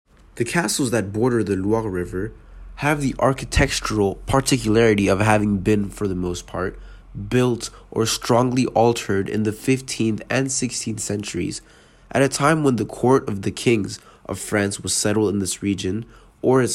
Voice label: Dialogue English; Dialogue architectural autour des chateaux de la Renaissance